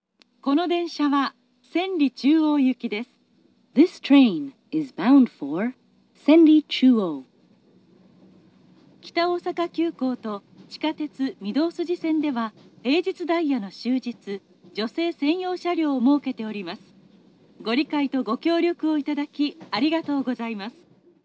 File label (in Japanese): senri chuo yuki annai, subway osaka